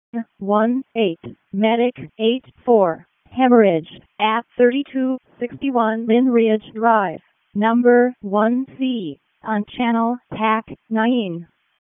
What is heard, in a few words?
EMS ALERT; p25; wake